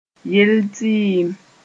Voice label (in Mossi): "yell tɩɩm", moore